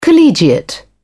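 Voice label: "collegiate", British English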